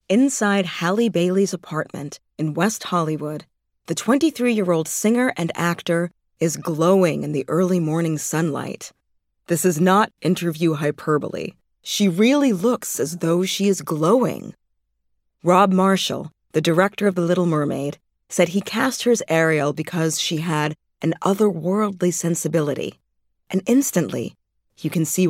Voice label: Narration

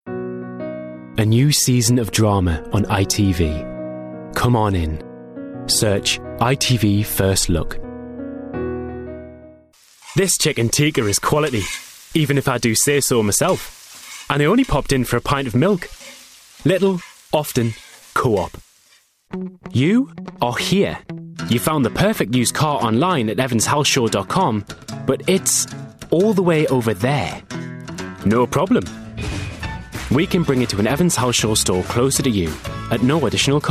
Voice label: regional accents

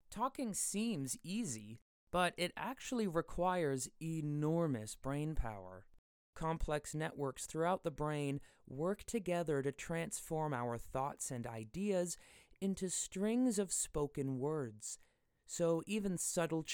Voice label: Home Studio Read

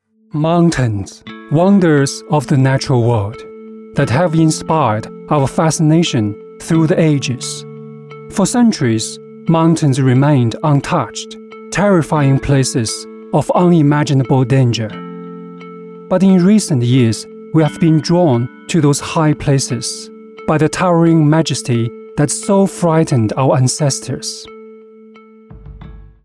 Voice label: English, Male Chinese Mandarin Voiceover

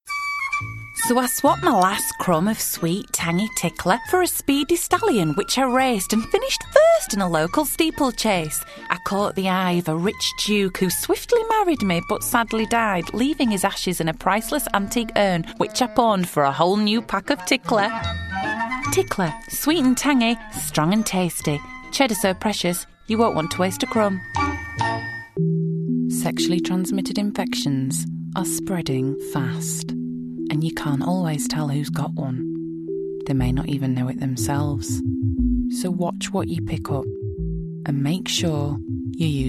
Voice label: Yorkshire

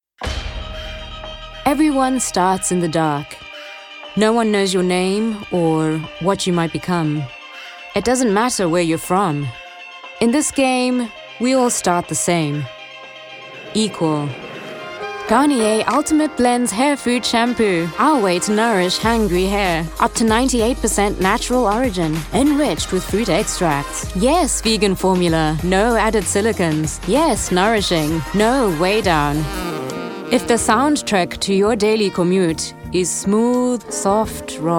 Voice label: Commercials

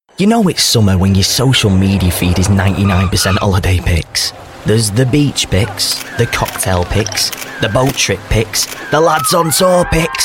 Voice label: Commercials

